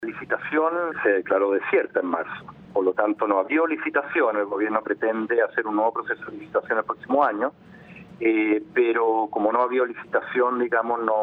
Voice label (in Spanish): cuña; Gomez Lobo